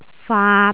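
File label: "faat3", Cantonese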